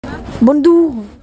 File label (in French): bonjour en chinois